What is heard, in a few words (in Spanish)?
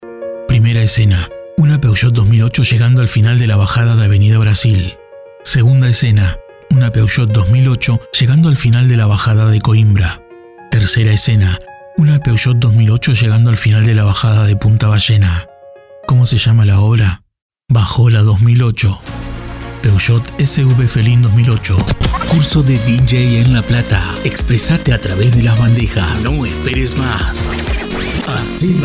Medios Comercial Versatil, voces masculinas